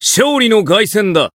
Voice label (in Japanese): Voice win, jp; claude